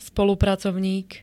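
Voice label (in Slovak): "spolupracovník", slovenské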